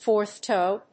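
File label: "Fourth toe", English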